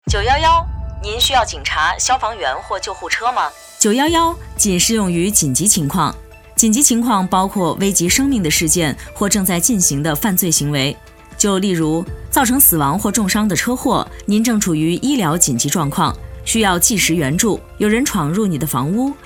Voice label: Mandarin; Edmonton Police Services; Radio Ad; 9-1-1 is only to be used in emergency situations